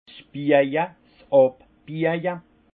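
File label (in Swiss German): "bieja", alsace Haut-Rhin; liage; vin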